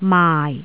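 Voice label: "maai4", Cantonese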